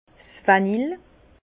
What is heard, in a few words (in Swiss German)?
"vanille", Bas Rhin